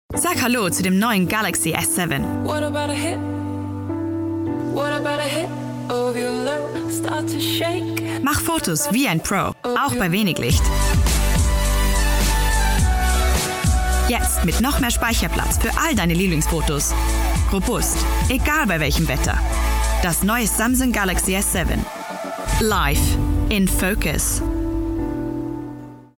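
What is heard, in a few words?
German, Commercial, Austria; GoLocalise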